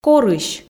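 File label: "корысь", Komi, female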